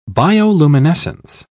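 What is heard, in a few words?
"bioluminescence", British English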